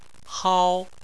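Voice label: "hao", Chinese, first tone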